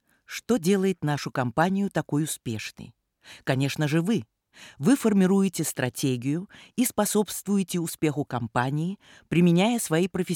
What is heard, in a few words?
E-Learning ru; BASF